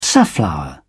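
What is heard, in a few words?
"safflower", British English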